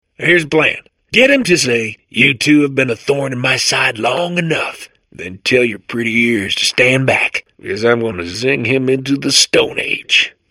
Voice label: babble; portal2